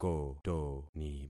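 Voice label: "kotoni", concatenated syllables; Affixing experiment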